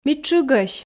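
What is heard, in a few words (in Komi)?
"ми тшыгӧсь", Komi, female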